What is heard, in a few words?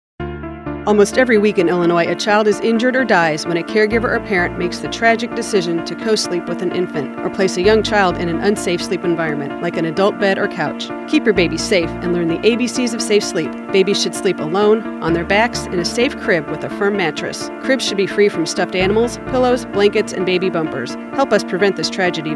public service announcements; safe sleep; DCFS